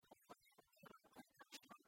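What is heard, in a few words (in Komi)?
овлӧ-ӧ руд рӧма пӧльтан шар, Komi, female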